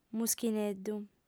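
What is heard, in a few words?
"muschineddu", female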